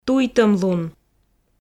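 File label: "туйтӧмлун", Komi, female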